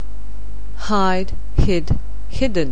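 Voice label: "hide", English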